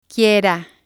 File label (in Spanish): "quiera", spanish